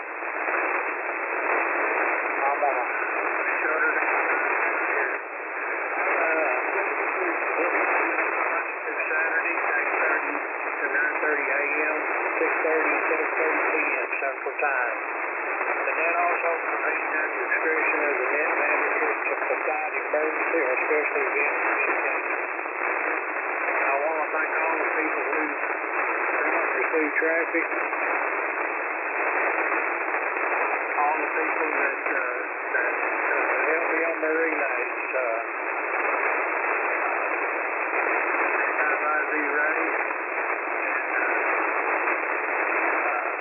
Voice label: phone with noise